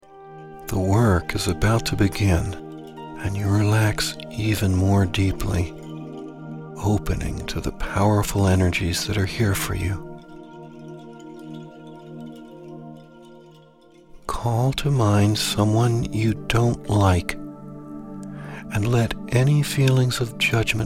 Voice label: judgment Meditation

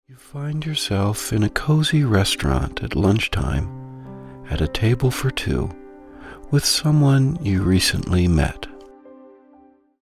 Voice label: shyness meditation

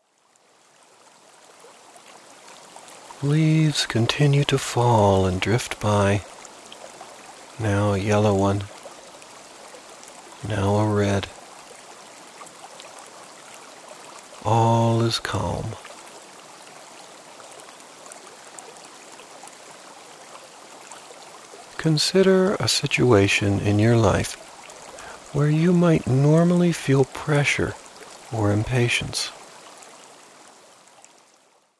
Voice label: patience Meditation

